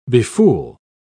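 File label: "befool", en, uk British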